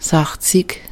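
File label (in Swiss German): "sachzig", Alsatian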